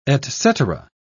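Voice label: "etcetera", British English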